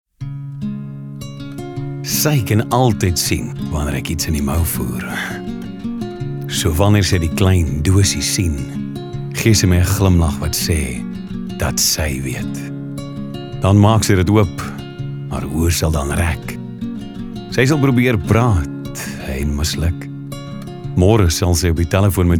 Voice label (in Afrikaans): Soft Sell Afrikaans